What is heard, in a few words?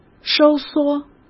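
"收缩", Mandarin Chinese; chinese-flashcards; hsk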